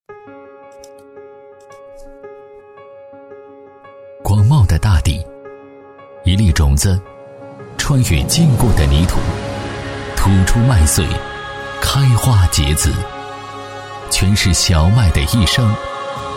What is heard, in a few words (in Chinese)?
男 大气宣传片; 记录讲解; 大气浑厚; 广袤的大地